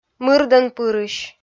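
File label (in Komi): "мырдӧнпырысь", Komi, female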